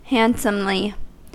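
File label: "handsomely", US English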